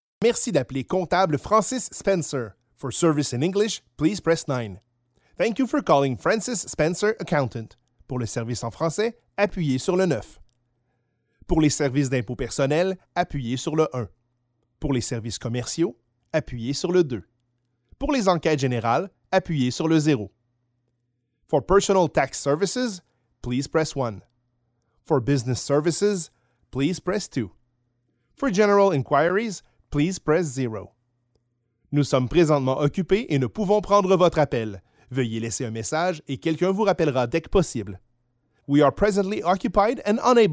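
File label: Male, French Canada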